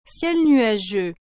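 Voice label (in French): "ciel nuageux", French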